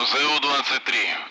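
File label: Speech Sound Russian Common Allied Flight; AirDefence; ZU-23; DCS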